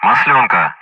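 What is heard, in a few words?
Speech Sound Russian; Common Player Callsign Maslenka; DCS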